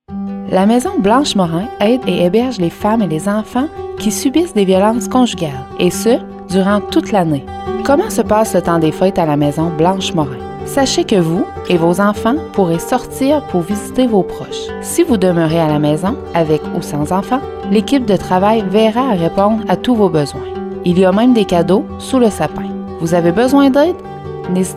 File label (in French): Publicite; campagne publicitaire radio